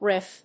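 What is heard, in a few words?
"riff", English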